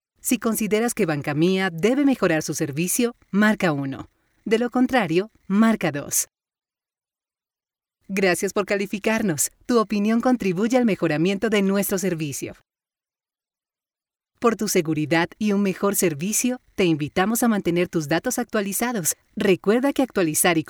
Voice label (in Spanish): IVR; Bancamia